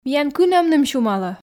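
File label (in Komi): миян кынӧмным сюмалӧ, Komi, female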